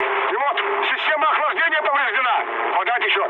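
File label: Russian damaged water mood med, speech; War Thunder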